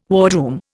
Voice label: "wardroom", Indian